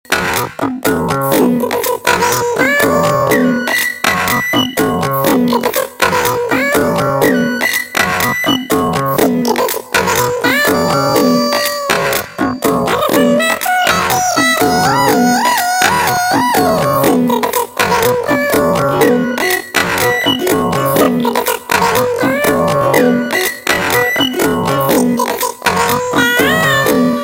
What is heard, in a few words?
Chipmunk